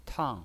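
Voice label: "tang", pinyin voice, fourth tone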